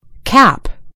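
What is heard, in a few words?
"cap", British English; gcompris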